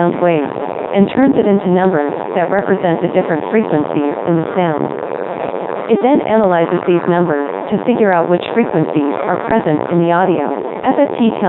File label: high voice noise codec2